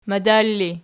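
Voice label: "madar" ergative, Archi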